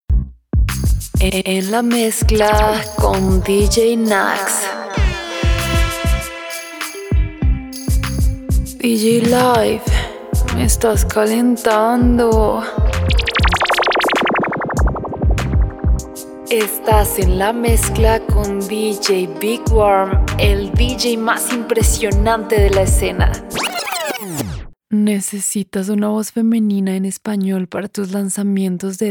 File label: Spanish female, dj drops